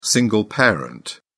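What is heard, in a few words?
"single parent", British English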